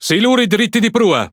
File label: Speech Alarms Torpedo Ahead, IT; World Of Warships